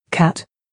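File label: "cat", English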